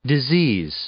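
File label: "disease", English; noun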